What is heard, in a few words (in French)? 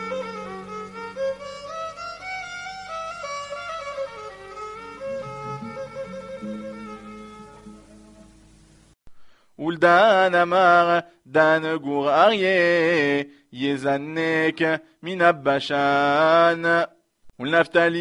Paracha Vezot Haberakha 5e montee algerien; devarim deuteronome